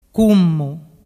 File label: "cummu", dialettu